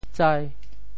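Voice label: "tsai5", Teochew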